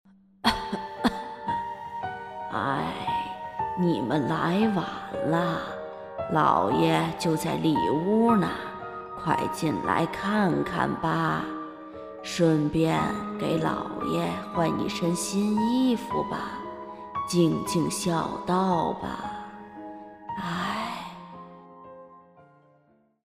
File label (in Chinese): 密室恐怖老太太